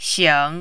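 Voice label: "xing3", mandarin, dipping tone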